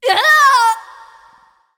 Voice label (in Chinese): death, zh